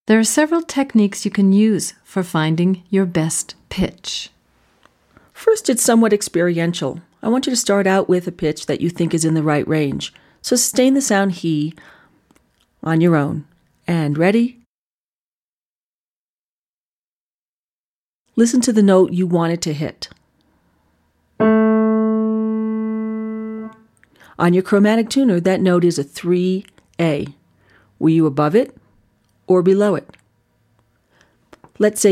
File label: Voice Fem